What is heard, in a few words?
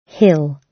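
"hill", British English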